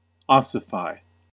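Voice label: "ossify", American English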